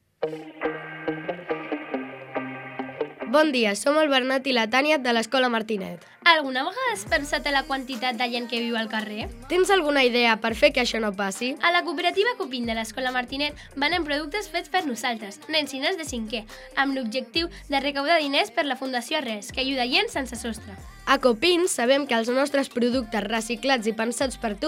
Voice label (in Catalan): FALCA PUBLICITARIA; COOPERATIVA COPIN